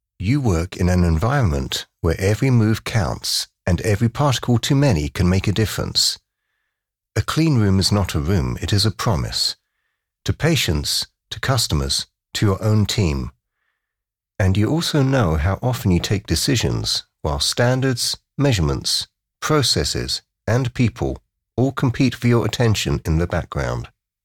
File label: british voice over medical corporate film